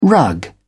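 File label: "rug", English, British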